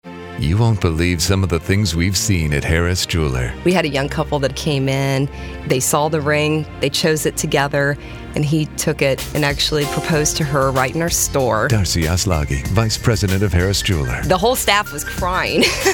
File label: interview